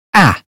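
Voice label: "hat", British phonetics sound; 英语音标发音（剑桥版）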